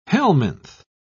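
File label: "helminth", British English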